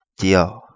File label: "Tiahu", kapingamarangi